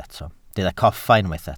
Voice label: "coffa", Welsh